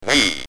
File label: "V", Speak & Spell UK